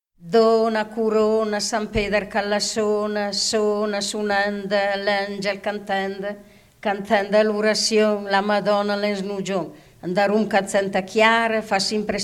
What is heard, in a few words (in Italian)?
orazione; brianza; dona curona